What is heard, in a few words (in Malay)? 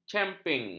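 "camping", Malay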